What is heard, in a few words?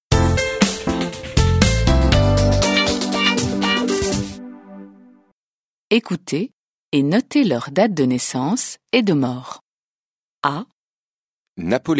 French